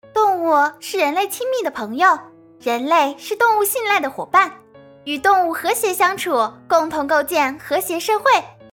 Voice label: 广告少女欢快活力

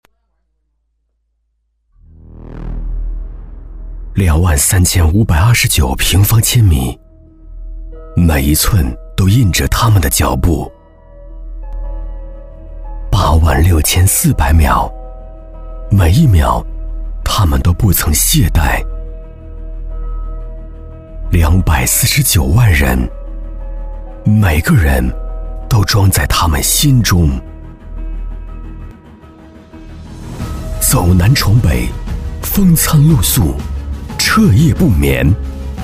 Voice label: 旁白浑厚走心; 基层公务员

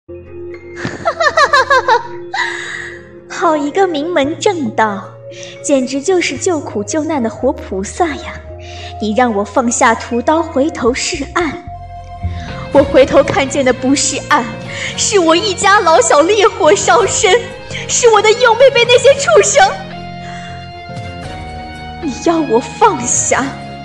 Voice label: 古风爆发; 要我放下

